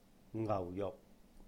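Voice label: "ngáuh-yuhk", Cantonese; beef; 牛肉; ngau4juk6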